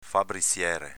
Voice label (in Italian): "fabriziere", dialetto veneto